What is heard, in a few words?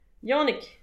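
"yannig", Breton; petit precis de prononciation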